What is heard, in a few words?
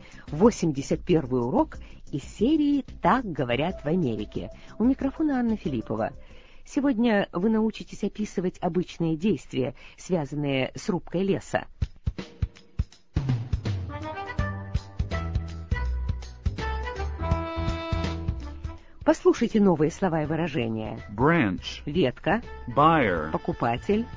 russian english